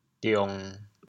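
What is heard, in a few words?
"diong1", Teochew, chaoyang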